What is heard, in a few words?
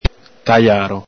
"tajaro", Veneto